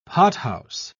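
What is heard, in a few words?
"pothouse", British English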